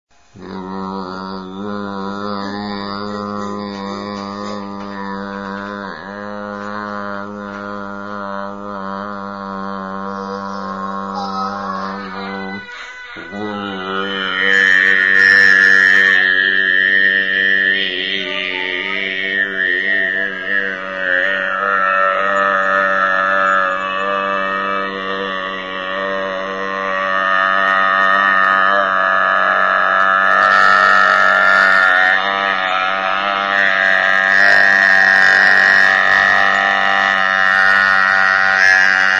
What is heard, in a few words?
Sound Poetry